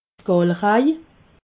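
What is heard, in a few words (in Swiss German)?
"gallerej", Alsatian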